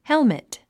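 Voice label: "helmet", English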